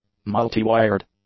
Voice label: "multi-wired", English; adjectives